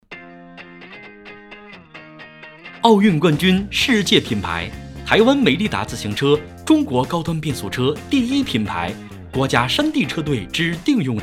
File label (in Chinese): 男 广告